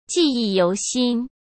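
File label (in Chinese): "记忆犹新", Mandarin Chinese; chinese-flashcards; hsk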